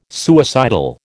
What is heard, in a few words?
"suicidal", American